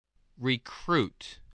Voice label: "recruit", voice, english

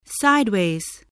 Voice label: "sideways", spelling

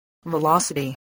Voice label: "velocity", English

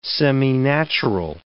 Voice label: "seminatural", English

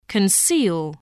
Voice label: "conceal", english

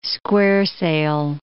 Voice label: "square sail", English

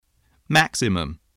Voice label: "maximum", spelling voice

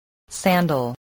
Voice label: "sandal", English spelling

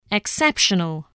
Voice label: "exceptional", voice, english

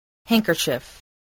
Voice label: "handkerchief", spelling

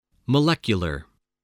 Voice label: "molecular", voice, spelling

